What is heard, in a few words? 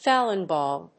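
"Falun Gong", English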